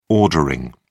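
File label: "ordering", English, British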